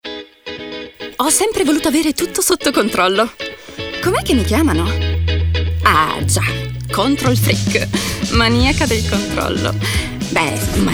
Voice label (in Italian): naturale interpretato allegro